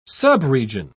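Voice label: "subregion", British English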